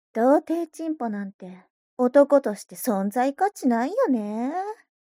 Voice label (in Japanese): mob 女子 台詞